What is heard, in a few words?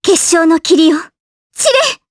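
voice Skill, voices heroes, Japanese; Kirze; kings raid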